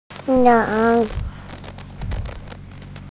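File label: "leng3", Mandarin Chinese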